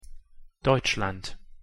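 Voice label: pronunciation "deutschland", German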